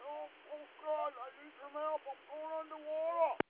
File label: distress call